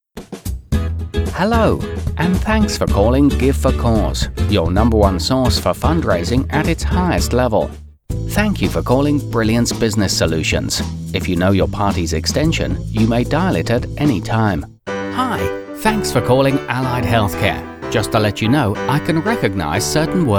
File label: Phone Systems Voice-over Stereo